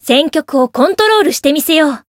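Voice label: Voice variable chant Japanese; isuka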